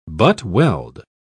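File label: "butt-weld", English, British English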